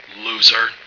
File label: loser, male